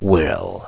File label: "will", word